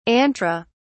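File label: "antra", British English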